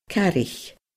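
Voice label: "caraich", Scottish Gaelic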